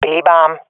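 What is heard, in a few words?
loc b, swat female; Source Model Packs; Counter Strike Model Pack; CS2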